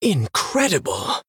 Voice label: Male Human Wow